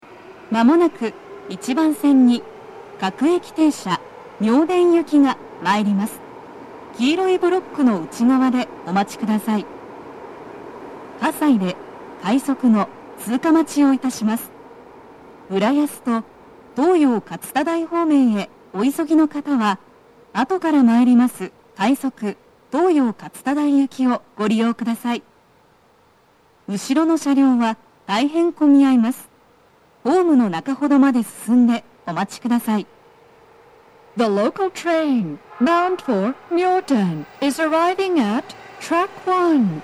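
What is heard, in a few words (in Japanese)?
接近放送, 各駅停車 西船橋行 (混雑付帯), 女声; 放送は「各駅停車 妙典行き (混雑付帯)」です。; 葛西で、快速 東葉勝田台行きの通過待ちを致します。※音声は音割れしています。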